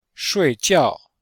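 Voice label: "shui4jiao4", Chinese; Cours-de-Chinois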